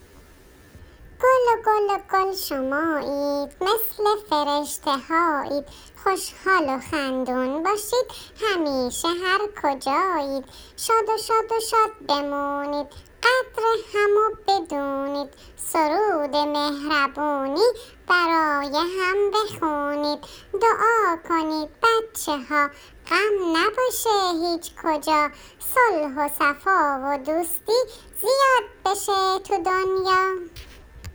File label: Children poem